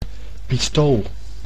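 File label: "pistool", Dutch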